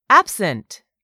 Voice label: "absent", English; 3000 words